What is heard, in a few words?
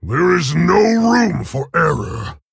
Male Orc Oops